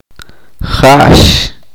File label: "ella", chorti